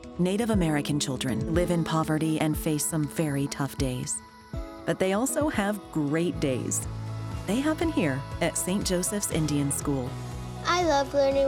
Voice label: Radio PSA; Love My Day